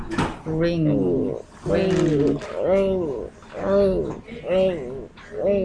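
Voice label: "ring", spoken word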